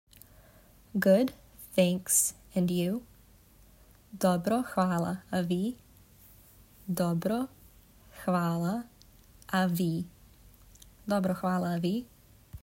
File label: SRB Good thanks and you, serbian talking phrasebook